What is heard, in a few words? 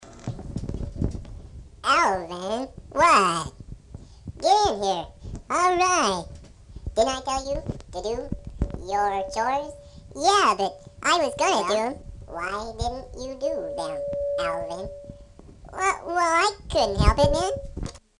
Chipmunks Impression Double Speed